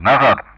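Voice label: Speech Sound Russian; Common Player Messages OUT; DCS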